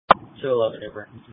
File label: Police Patrol 1 (Dispatch)